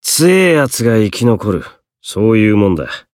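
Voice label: win, Japanese; hardy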